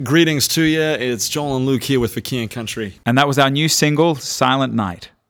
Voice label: song backsell